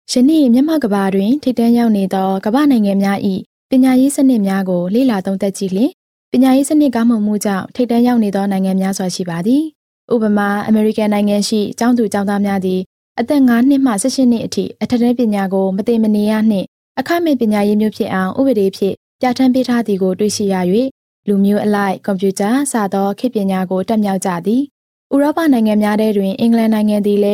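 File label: Burmese narration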